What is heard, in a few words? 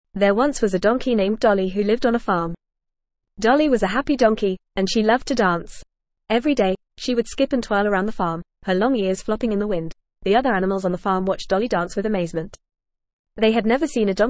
ESL Short Stories for Kids FAST reading; Dolly the Dancing Donkey